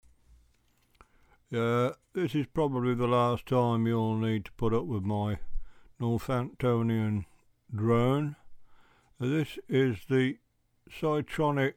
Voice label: usb mic